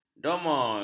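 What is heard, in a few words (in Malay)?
"domol", Malay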